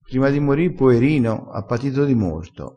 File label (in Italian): "di morto", crespinese